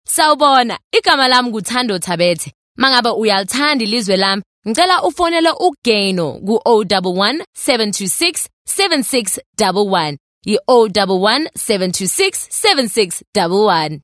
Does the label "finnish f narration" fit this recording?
No